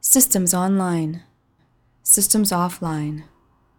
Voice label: System Messages Faster